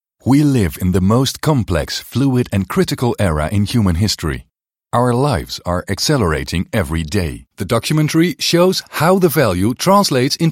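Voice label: English International, male, explainer, accent of the Netherlands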